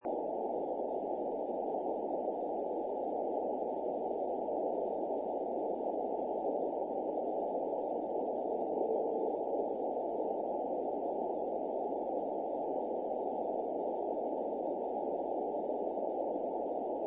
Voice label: arrl ss ssb; contests